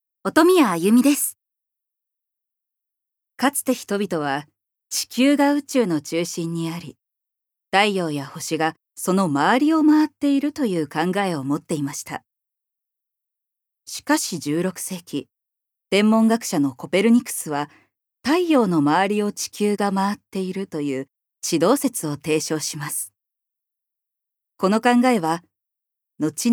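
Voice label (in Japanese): ナレーション, ボイスサンプル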